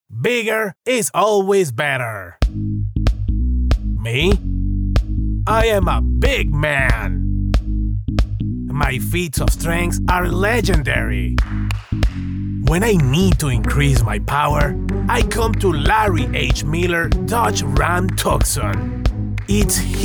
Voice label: English automotive

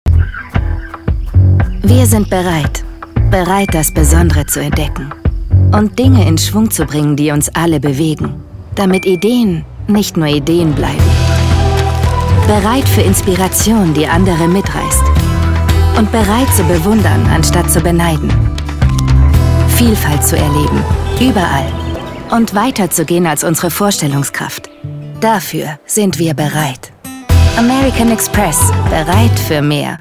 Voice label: german commercial; voice actors

